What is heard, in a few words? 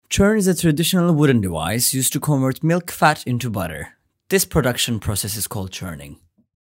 english